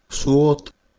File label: "swot", Proto Germanic